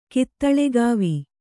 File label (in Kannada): "ಕಿತ್ತುಳಿಗಾವಿ", Kannada